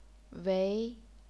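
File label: "wei", chinese pinyin, second tone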